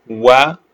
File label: short voyels arabic alphabet